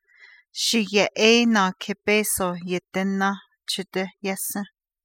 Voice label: navajo, My son is standing guarding my car for two dolla